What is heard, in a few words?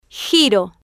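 "giro", Spanish, female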